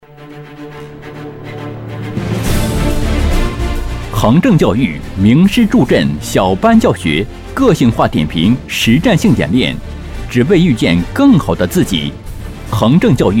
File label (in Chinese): 教育 男 磁性激情; 恒政